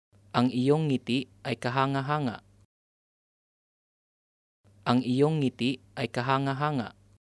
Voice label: Your smile is wonderful Tagalog

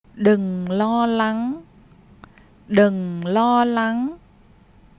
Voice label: No worries, vietnamese; Encouragement

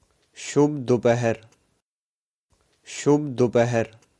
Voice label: Good afternoon punjabi

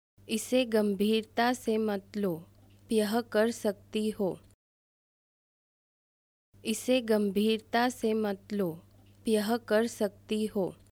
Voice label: Take it easy! can do it, Hindi, female

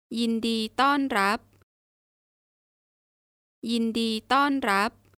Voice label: "Welcome", Thai

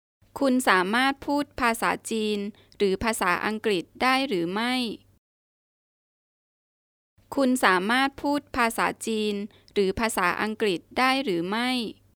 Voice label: Can you speak Chi-Eng Thai

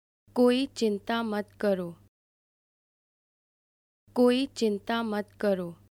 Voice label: No worries Hindi

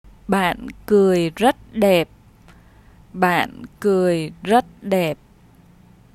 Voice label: Your smile is wonderful, vietnamese; Encouragement

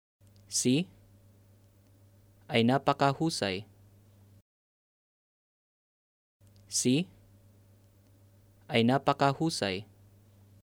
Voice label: (Name) is doing well, Tagalog

